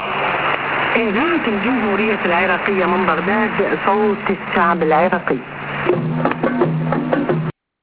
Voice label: Voice Iraqi People, clandestine